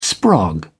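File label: "sprog", English, British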